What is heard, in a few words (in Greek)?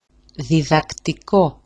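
"didaktiko", Greek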